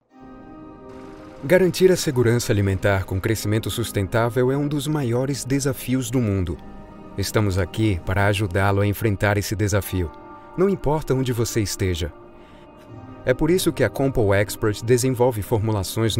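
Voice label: br corporate, Brazilian Portuguese; portugisisk-brasil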